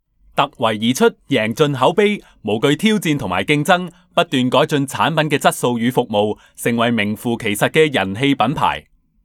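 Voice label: chinese cantonese male narration; voice demos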